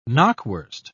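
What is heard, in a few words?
"knackwurst", British English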